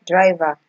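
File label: "driver", Nigerian Pidgin, female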